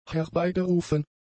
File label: "herbeigerufen", German; adjectives